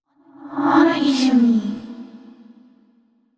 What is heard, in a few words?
VO thinking; yamiko